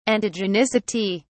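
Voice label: "antigenicity", British English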